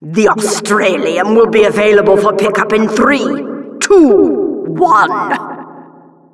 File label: Announcer round start